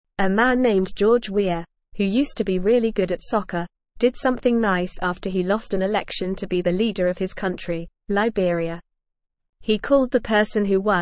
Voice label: English Lower Intermediate NORMAL Reading; George Weah Congratulates Joseph Boakai Making Li; English Newsroom

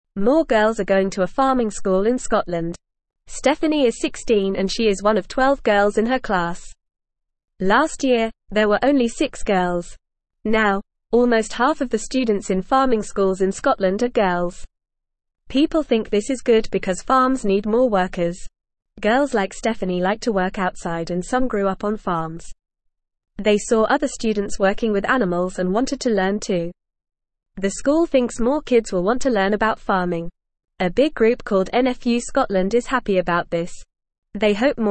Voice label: English Newsroom Beginner FAST Reading; More Girls Going to Farming School in Scotland

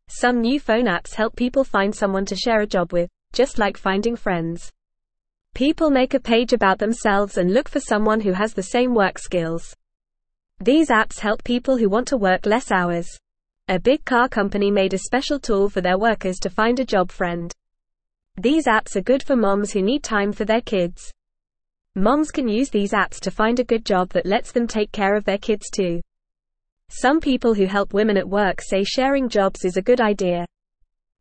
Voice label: English Beginner FAST Reading; New Phone Apps Help Moms Find Job Friends; English Newsroom

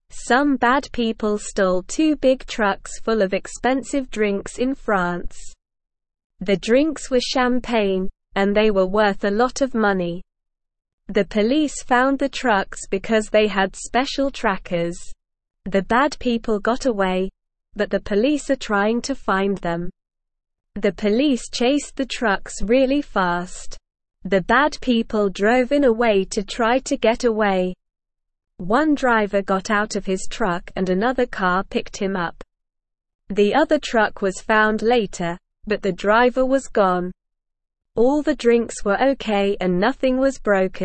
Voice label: English Lower Intermediate SLOW Reading; Police Catch Thieves and Save Expensive Drinks; English Newsroom

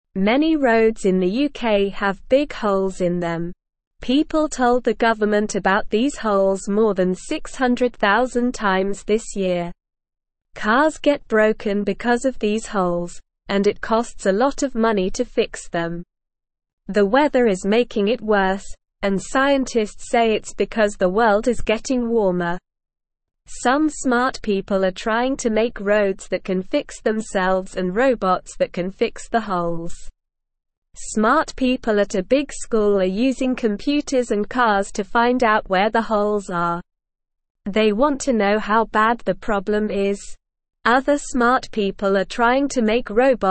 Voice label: Beginner SLOW Reading, English; English Newsroom

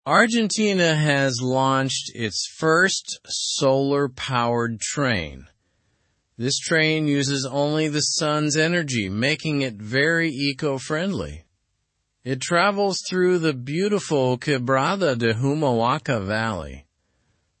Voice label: english news lower intermediate; sustainable travel on argentina s solar train

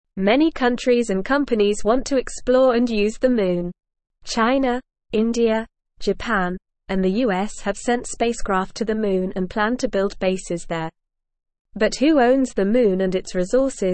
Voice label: English NORMAL Reading; Who Owns the Moon

